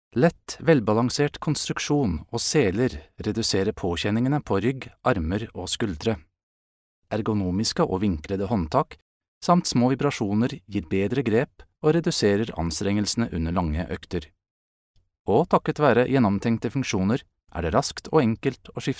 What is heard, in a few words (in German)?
Nachvertonung Film Sprecher Norwegisch